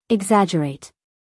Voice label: "exaggerate" US English, female; everyone-can-use-english